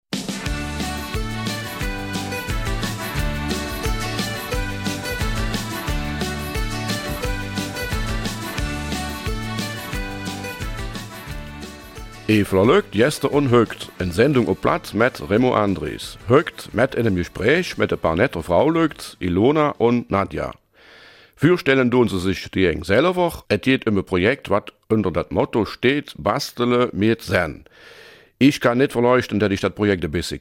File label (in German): Eifeler Mundart; KREA-GemAction